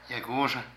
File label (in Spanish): Aguja, Zapoteco de Petapa; Ropa; Vocabulary